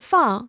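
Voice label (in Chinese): "放" Chinese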